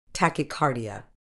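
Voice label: "tachycardia", British English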